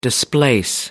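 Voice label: "displace", English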